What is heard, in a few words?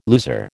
"loser", American